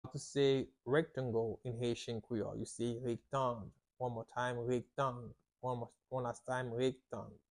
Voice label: "Rektang" Haitian Creole pronunciation by a native Haitian tutor; How to say Rectangle in Haitian Creole